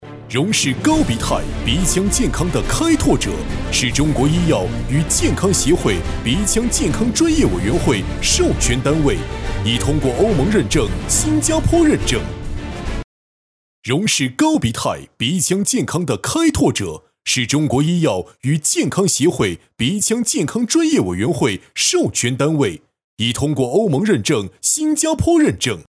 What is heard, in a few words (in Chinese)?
男, 促销 鼻腔健康; 荣氏高鼻泰